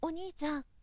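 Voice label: boy, "onichan"; shonen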